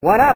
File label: one up male slowed; SF2 unused